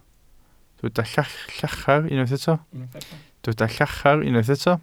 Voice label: "llachar", Welsh